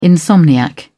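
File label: "insomniac", British English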